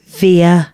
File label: announcements female